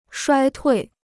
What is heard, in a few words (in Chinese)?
"衰退", Chinese